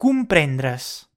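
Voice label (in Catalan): "COMPRENDRES", catala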